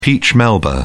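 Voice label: "peach melba", British English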